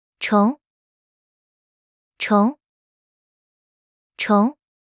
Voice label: "蟲", Mandarin; Traditional Chinese

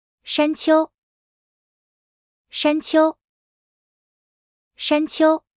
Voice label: 山丘, mandarin; Traditional Chinese

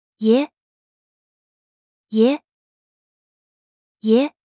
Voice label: 爺, mandarin; Traditional Chinese

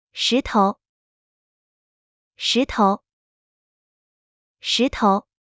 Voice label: "石頭", Mandarin; Traditional Chinese

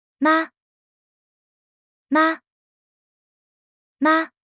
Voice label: "媽", Mandarin; Traditional Chinese

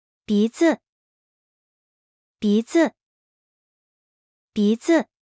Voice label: "鼻子", mandarin; Traditional Chinese

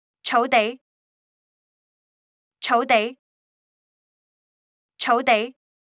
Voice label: 草地, Cantonese; Traditional Chinese

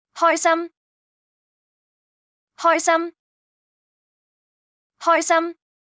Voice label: 開心, Cantonese; Traditional Chinese

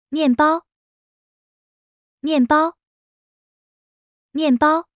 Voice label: "面包", mandarin; Traditional Chinese

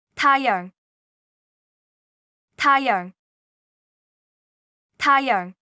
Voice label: 太陽, Cantonese; Traditional Chinese

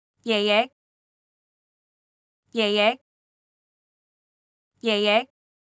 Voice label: 爺爺, Cantonese; Traditional Chinese

